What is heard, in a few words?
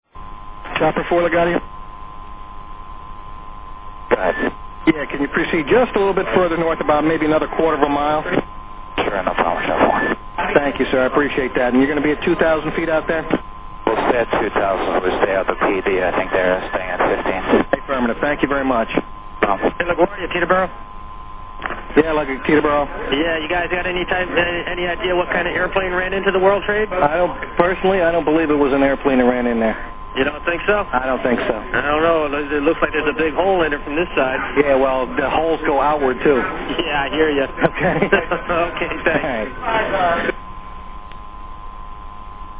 Helicopter reports police and news